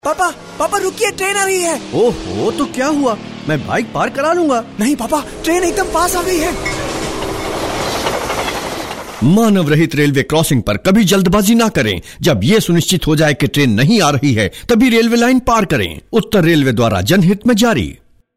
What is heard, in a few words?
Hindi, male voice